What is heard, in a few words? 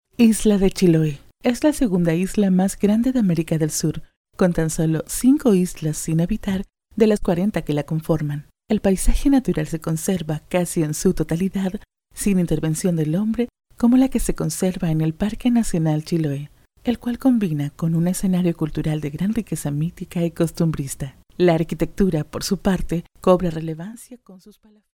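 Spanish Latin America female narrative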